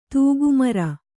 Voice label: "ತಿಗಮರಿ", Kannada